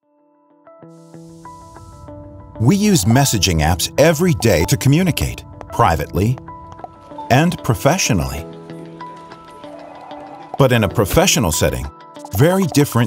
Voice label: Product video s Zakelijk, VOICEOVER